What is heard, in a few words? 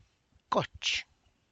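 tlacochahuaya, Zapotec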